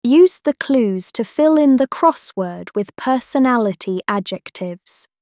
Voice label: instruction; EngExplorer; Describing People Crossword Puzzle

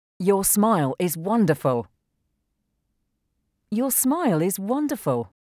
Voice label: Your smile is wonderful, Eng

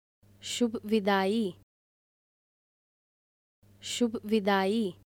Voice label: Goodbye Hindi

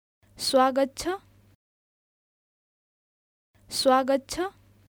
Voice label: Welcome, Nepali

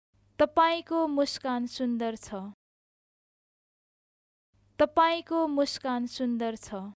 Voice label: Your smile is wonderful, Nepali

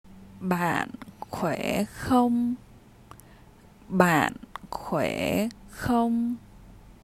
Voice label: How are you, vietnamese; Greetings

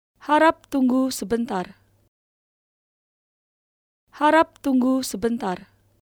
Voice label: Please wait for a while, Indonesian

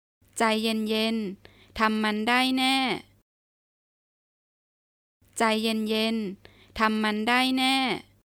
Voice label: Take it easy! (Name) can do it, Thai

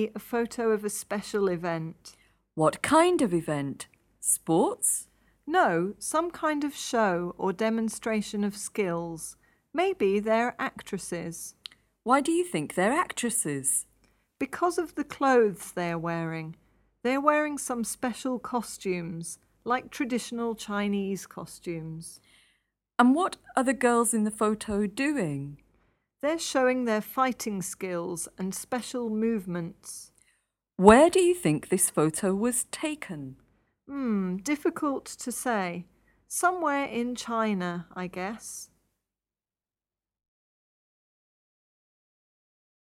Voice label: conversation

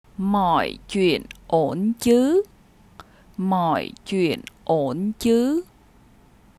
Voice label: Is everything fine, vietnamese; Concern for needs

